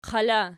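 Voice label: "qala" nominative, Archi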